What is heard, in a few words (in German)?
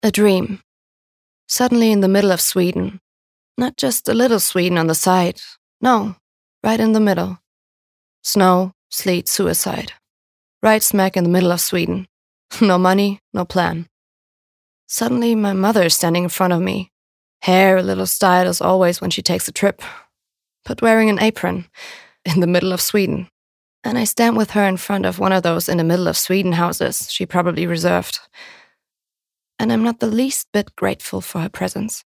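American English, Schauspiel Sanft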